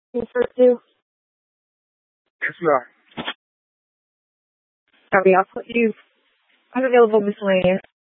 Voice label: Phx PD Fire Dispatch; K9 - FDCOM 9 - West; Phx Fire and Public Works; RWC

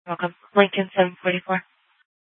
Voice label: Sheriff, Maricopa County P25; Shrf Lakes

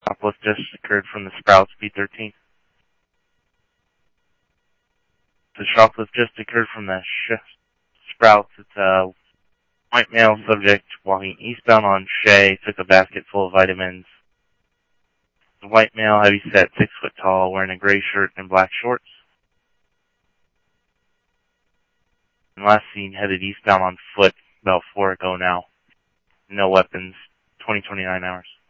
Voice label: Scottsdale PD Scottsdale Police, scanner audio

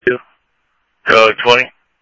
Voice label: scanner audio

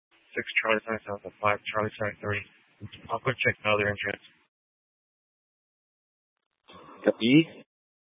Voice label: Dispatch, scanner

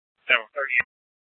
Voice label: PD Dispatch

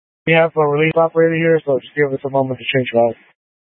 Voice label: Light Rail Emergency, scanner; Valley Metro Public Transit System; Channel 6; Simulcast F- Tempe

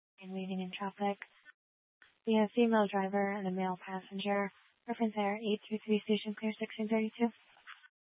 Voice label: Tempe PD, scanner; Rgnl Wireless Co-op (RWC)

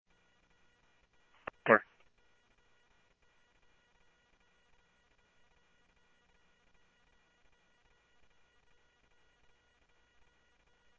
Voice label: Police, scanner

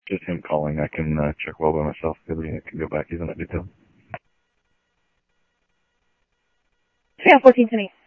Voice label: police, scanner audio

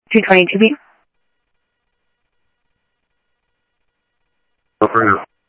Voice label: Sheriff-WestCnty, scanner audio

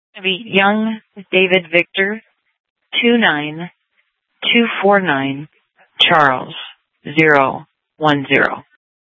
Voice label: Sheriff, scanner P25

